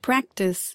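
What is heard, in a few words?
"practise", US English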